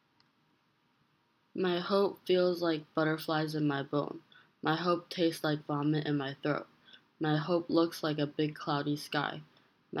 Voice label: poem; My hope